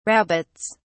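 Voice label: "rabbits", English, uk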